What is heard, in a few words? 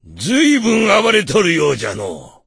voice, dubbing